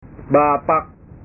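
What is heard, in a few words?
"bapak", Indonesian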